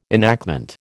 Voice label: "enactment", American

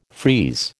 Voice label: "freeze" American

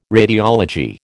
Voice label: "radiology", American

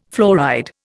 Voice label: "fluoride", Indian

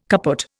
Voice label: "kaput", Indian

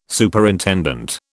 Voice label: "Superintendent", British

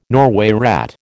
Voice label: "Norway rat", American

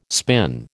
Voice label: "spin", American

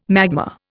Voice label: "magma", Indian

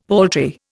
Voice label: "paltry", Indian; Dictionary

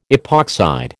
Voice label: "epoxide", American

